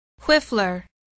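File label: "whiffler", English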